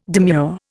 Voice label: "demure", Indian